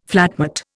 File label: "flatmate", Indian